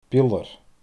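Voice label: "biolar", Scottish Gaelic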